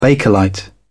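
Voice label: "bakelite", British English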